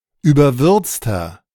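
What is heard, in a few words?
"überwürzter", German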